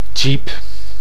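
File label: "jeep", Dutch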